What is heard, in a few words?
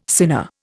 "sinner", Indian